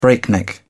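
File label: "breakneck", British English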